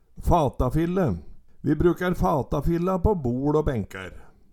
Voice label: fatafille, Numedalsmål

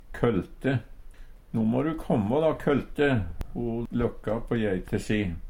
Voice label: "kølte", Numedalsmål; Substantiv hokjønn

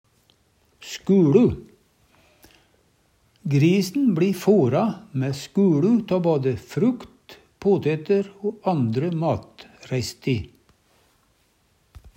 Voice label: "skuLe", Numedalsmål

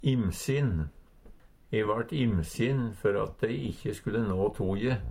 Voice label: imsin, Numedalsmål; redd for

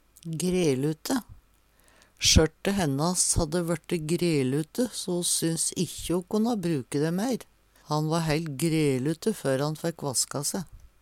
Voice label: grelute, Numedalsmål

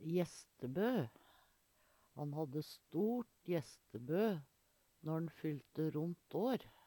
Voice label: "jestebø", Numedalsmål

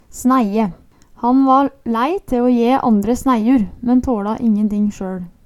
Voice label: "snæie", Numedalsmål

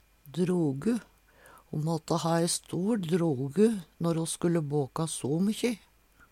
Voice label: "drogu", Numedalsmål; Hushald, mat, drikke

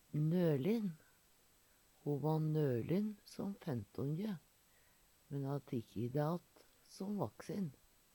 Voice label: "nølin", Numedalsmål

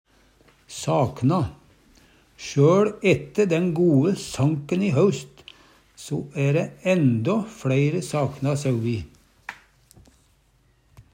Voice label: sakna, Numedalsmål; miste

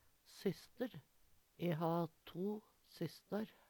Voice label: sysster, Numedalsmål; Substantiv hokjønn

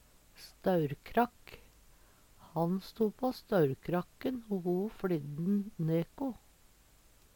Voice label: staurkrakk, Numedalsmål

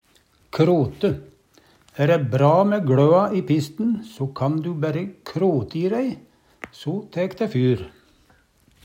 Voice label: krote, Numedalsmål

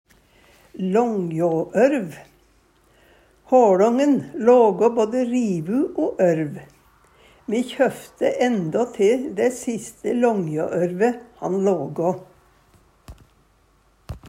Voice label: langjaorv, Numedalsmål; HåLången lågå både rivu o ørv. Me kjøfte ændåte dæ siste långjåørve han lågå.

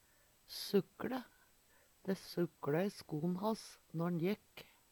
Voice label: sukkle, Numedalsmål; Verb

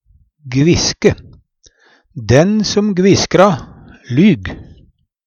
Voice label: gviske, Numedalsmål; Den som gviska, lyg, bLi dæ sagt.

